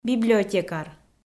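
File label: "библиотекар", Komi, female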